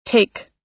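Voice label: "take", American English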